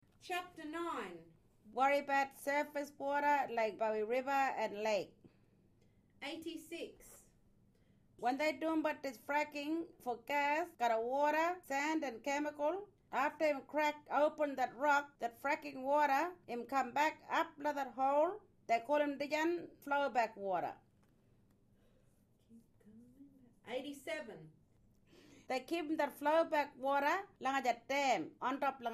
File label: Kriol; Fracking